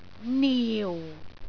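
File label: "niu4", Cantonese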